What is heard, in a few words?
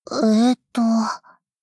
doubt